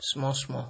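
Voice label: "small small", Nigerian Pidgin, male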